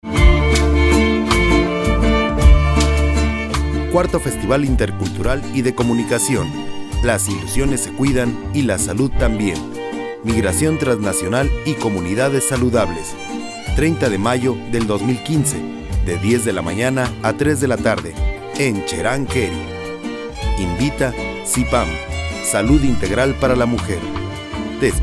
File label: promo